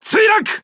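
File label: Speech Pilots Torpedo Destroy, Japanese; World Of Warships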